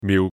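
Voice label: "miu6", Cantonese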